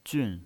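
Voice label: "jun", fourth tone, pinyin voice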